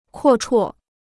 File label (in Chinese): "阔绰", Chinese